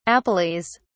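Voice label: "appellees", British English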